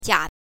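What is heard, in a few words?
"jia", Mandarin pinyin, neutral tone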